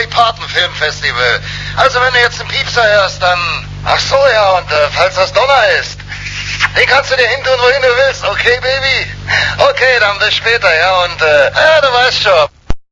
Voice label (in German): ansagen fuer anrufbeantworter; cheech